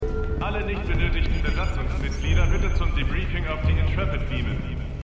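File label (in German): Männliche Durchsage; Star Trek Picard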